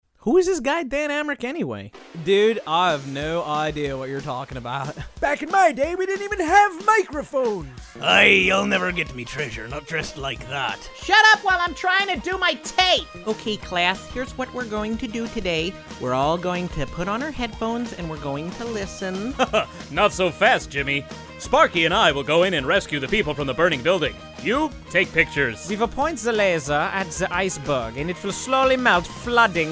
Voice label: Cartoony Characters